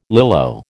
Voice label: "Lilo", American

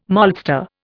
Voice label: "maltster", Indian

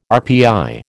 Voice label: "RPI", American

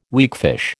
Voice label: "weakfish", American

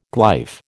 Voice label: "glyph", American

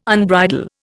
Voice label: "unbridle", Indian

